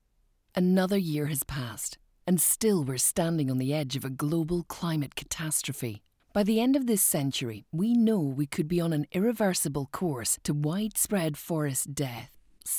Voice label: female English Scottish climate change documentary